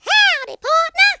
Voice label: "hello", speech, Cowgirl

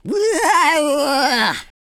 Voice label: angry, Super Villain; speech

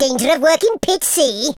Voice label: incoming, Welsh; speech

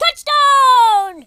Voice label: "amazing", US Sports speech

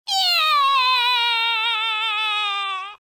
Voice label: scared, Grandpa speech

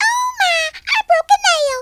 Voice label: ouch, Southern Belle; speech

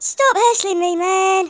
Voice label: incoming, Hippy

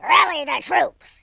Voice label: "bummer", speech

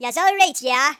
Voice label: "brilliant", Geordie; speech

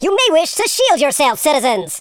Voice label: grenade, speech, Super Hero

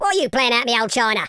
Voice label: "oi nutter", London Cabbie; speech

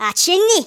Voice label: "dragon punch", Geordie; speech

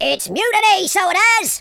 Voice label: traitor, speech, Pirate

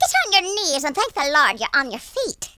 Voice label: "kamikaze", Irish; speech

